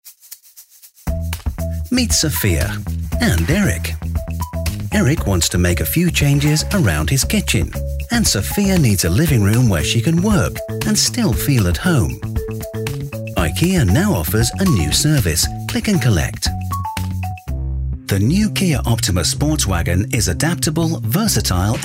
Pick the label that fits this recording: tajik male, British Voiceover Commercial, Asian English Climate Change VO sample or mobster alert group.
British Voiceover Commercial